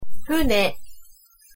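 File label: "fune", Japanese pronunciation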